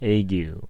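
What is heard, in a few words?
"ague", American English; pronunciations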